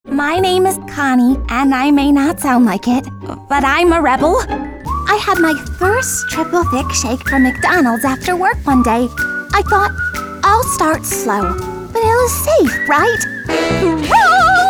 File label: vo character